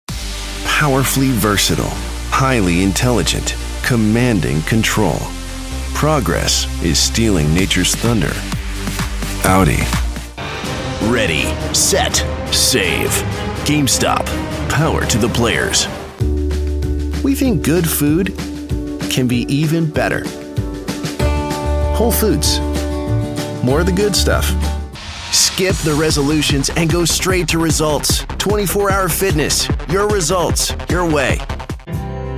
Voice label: american voice commercial

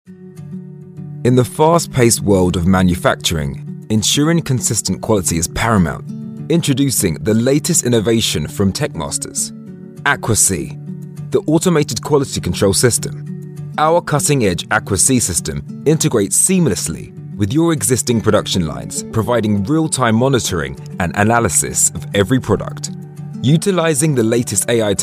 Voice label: london voice explainer

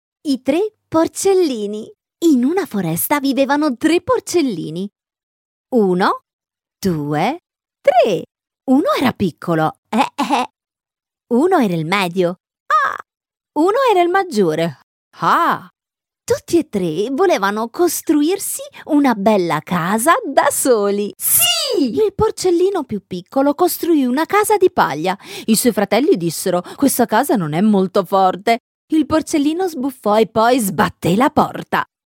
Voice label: italian female character, Bright Italian Female

